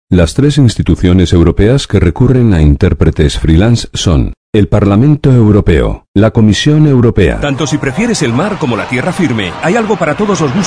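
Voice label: Spanish; esp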